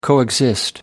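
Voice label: "coexist", English, British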